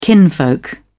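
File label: "kinfolk", British English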